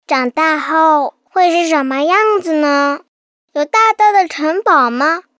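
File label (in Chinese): 女大音-男真童-长大后会是什么样子呢; 真童配音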